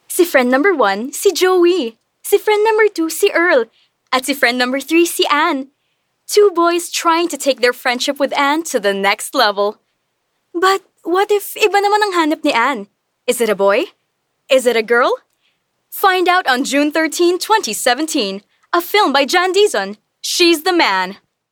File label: Taglish (Warm-hearted, enthusiastic)